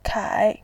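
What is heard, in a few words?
"kai3", Mandarin Chinese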